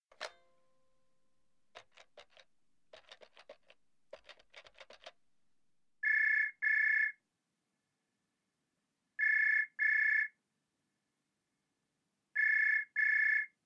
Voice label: dialog; JapanesePod101; Beginner Season 1